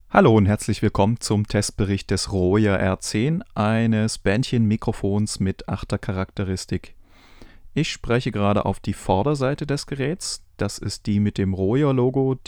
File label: vocal front; Royer R-10